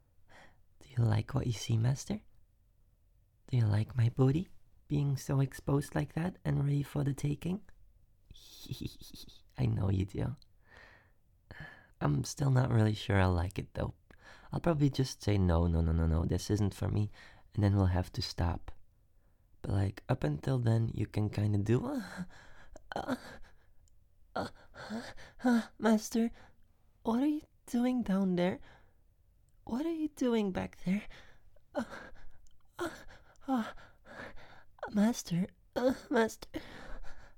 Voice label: sennheiser voice catboy No editing and processing